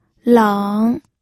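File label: "leng", third tone, pinyin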